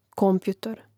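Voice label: "kompjutor", Croatian; Mrežnik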